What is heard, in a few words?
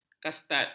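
"kastard", Malay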